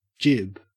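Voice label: "jib", Australian English; pronunciations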